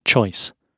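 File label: "choice", British English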